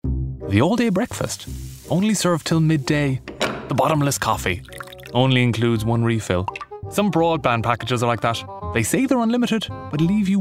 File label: male english irish irish accent commercial compilation